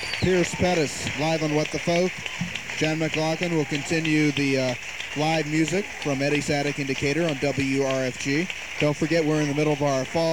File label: radio announcer; songwriter showcase - eddie's attic - decatur